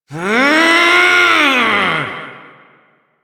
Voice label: Frustration